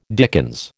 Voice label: "dickens", American

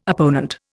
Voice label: "opponent", Indian

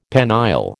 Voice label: "penile", American

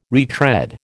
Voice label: "retread", American

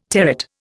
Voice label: "terret", Indian

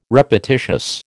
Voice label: "repetitious", American

